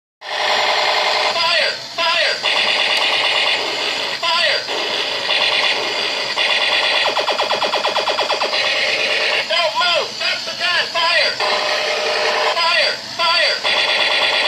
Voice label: robot fire fire